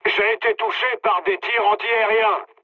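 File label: French damaged aa mood low; War Thunder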